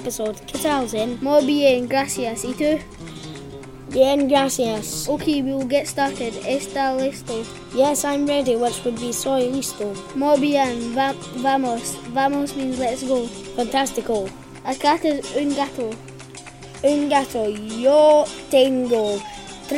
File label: Spanish Podcast